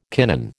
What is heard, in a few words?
"kinin", American